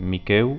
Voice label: "miqueu", Occitan

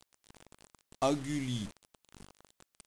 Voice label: "agulin", occitans

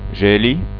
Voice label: "geli", Occitan

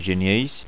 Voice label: "genieis", Occitan

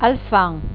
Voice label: "alfan", occitans

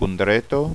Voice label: "condreta", Occitan

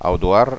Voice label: "audoard", Occitan

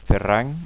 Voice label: "ferrand", Occitan

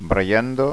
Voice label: "brianda", Occitan

